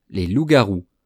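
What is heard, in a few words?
"les loups garous", French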